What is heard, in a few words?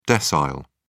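"decile", English, British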